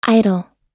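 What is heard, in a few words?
"idol", American English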